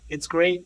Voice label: Voice Acting, es